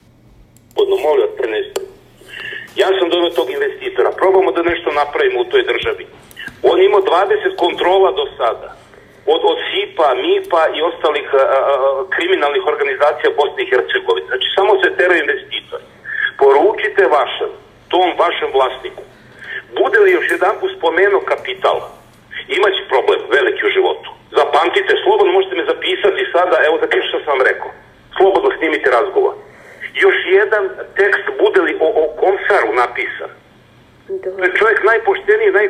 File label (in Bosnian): snimak telefonskog razgovora